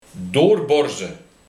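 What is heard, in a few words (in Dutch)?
"doorborze" Dutch dialect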